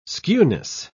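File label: "skewness", British English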